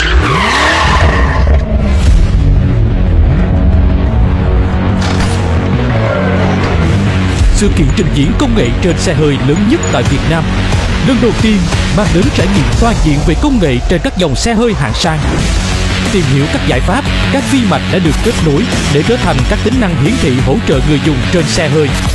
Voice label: South Vietnamese Male, voice samples; Software; Fpt

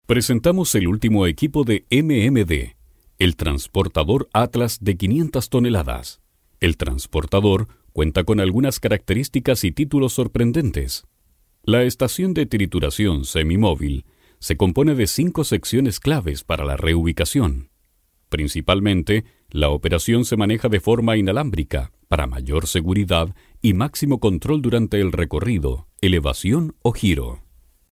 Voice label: Latin Spanish Chile Male

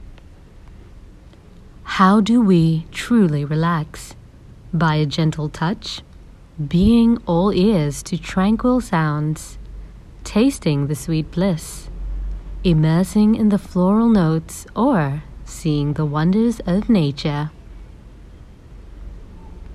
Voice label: Asian English; Condo

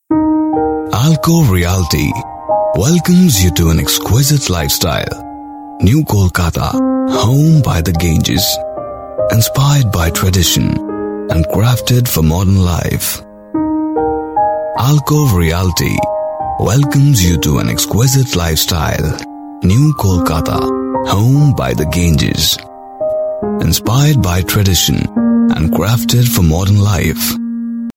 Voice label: Indian English Corporate; Male

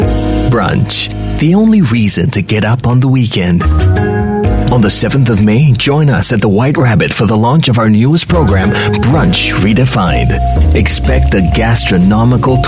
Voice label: Young Asian English; Brunch

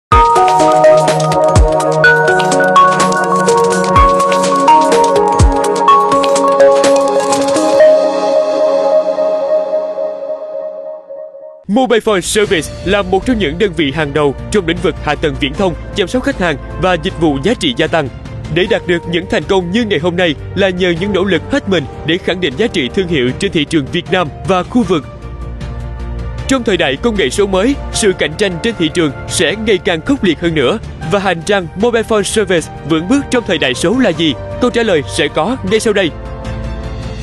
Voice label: South Vietnamese Male, voice samples; Mobifone Service

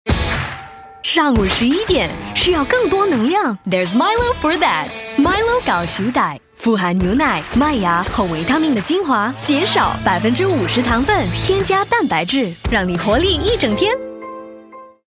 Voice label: Mandarin, Mandarin China Female; Milo Occasion2

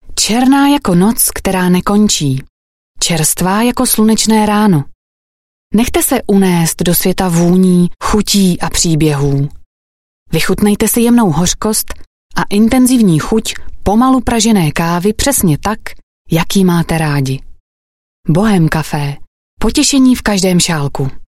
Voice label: Czech Voice Sample

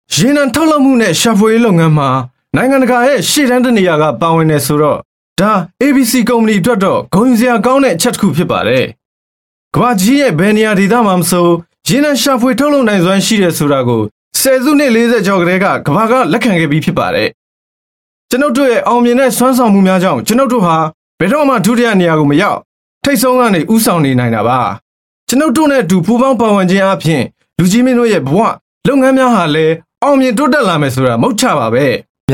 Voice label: Male Burmese Marketing

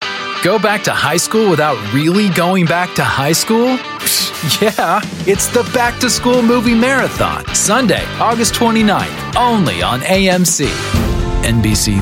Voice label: US English Promo

